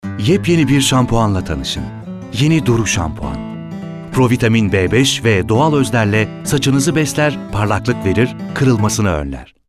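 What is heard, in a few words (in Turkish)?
sicak sakin, seslendirme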